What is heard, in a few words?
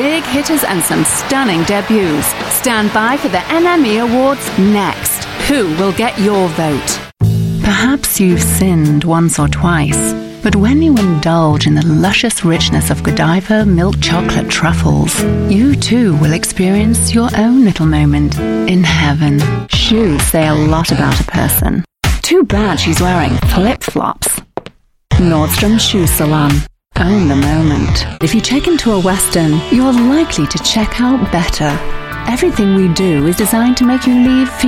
UK English Female